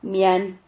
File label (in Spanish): "mian", Silabas